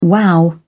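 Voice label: "wow", British English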